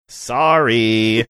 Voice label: saying "sorry" singsongy